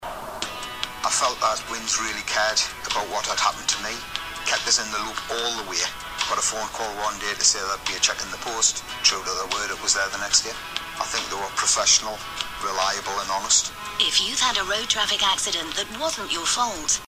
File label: radio commercial; Big Brother Xtra